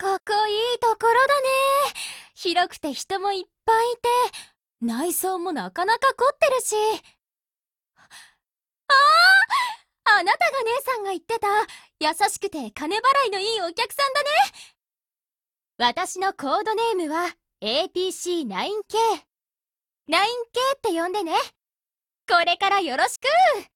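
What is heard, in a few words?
gain, Japanese; 人物：少女前线:APC9K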